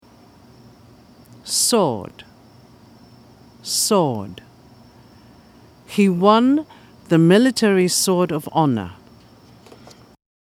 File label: sword, pronunciation